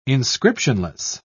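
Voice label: "inscriptionless", British English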